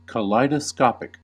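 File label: "kaleidoscopic", American English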